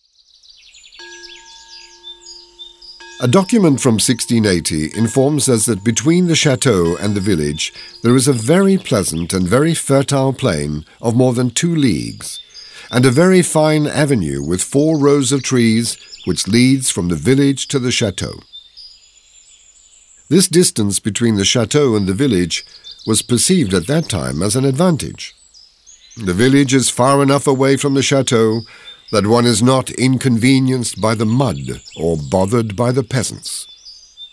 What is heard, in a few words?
audioguide en adulte; modave